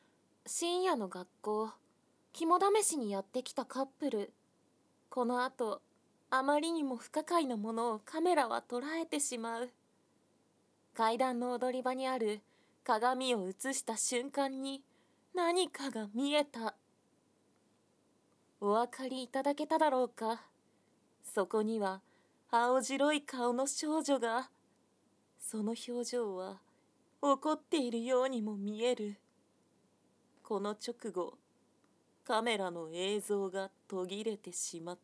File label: narration